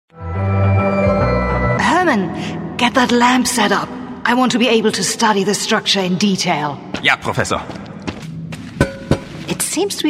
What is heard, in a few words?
German female, character voices